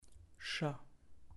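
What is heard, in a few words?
"chat", French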